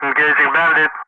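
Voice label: "engaging bandit", English; Ka-50; Wingman; Messages; Speech; DCS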